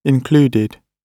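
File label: "included", British English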